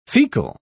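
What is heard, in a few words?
"fecal", British English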